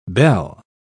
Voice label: "bell", pronounce